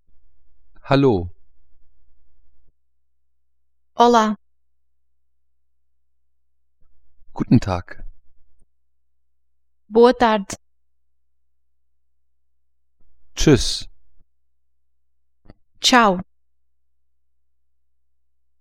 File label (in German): portugiesisch